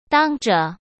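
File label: "当着", Mandarin Chinese; hsk; chinese-flashcards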